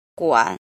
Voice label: "jin", Chinese